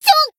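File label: Voice damaged, japanese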